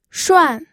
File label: "shuan4", pinyin